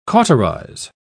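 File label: "cauterise", British English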